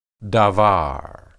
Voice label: "dabar", Hebrew